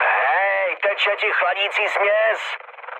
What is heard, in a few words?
damaged water, speech cze, mood med; War Thunder